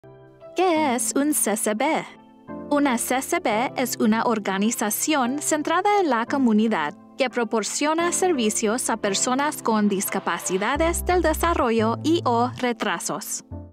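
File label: Latin American Spanish Explainer; Early-Intervention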